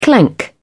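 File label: "clank", British English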